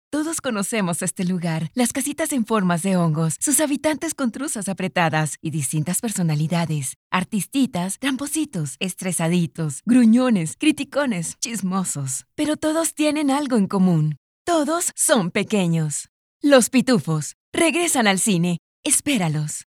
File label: TRAILER